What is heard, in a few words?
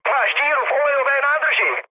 damaged oil, speech cze, mood low; War Thunder